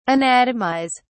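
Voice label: "anatomise", English, British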